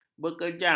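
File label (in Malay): "berkejang", Malay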